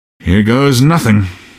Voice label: squad affirm, male; npc; Garry's Mod; hl2